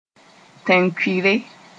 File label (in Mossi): "tẽn-kʋɩre", Moore; desert